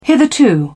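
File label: "hitherto", British English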